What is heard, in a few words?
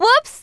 "Oops", Smooth Babe; Worms Armageddon